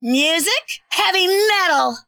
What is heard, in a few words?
annoying; 轰天凯拉（钢铁战队）